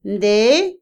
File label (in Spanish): tarde, Otomí, mujer; INALI